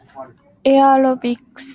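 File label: "aerobics", Japanese, female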